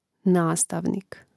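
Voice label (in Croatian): "nastavnik", Hrvatski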